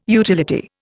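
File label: "utilitarianism", Indian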